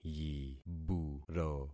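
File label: "yiburo", concatenated syllables; Affixing experiment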